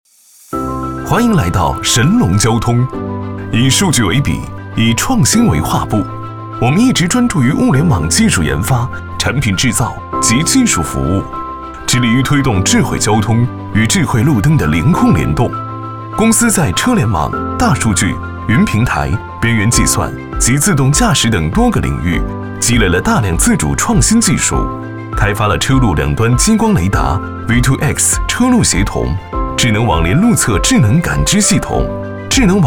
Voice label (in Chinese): 男 年轻科技; 神龙交通